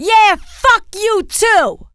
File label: angry, female; kingpin; mona